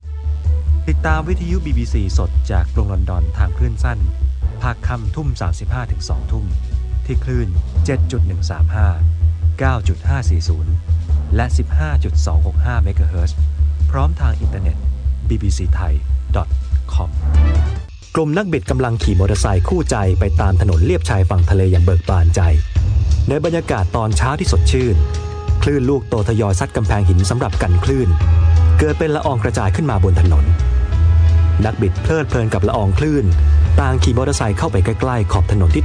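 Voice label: Thai, General; GoLocalise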